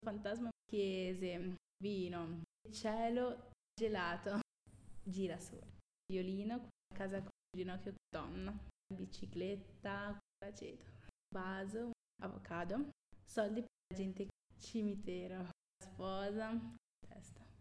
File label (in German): Zusammengefugte Worter Schnell Italienisch